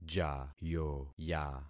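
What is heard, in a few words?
"jayoya", concatenated syllables; Affixing experiment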